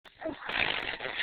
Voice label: bilabial fricative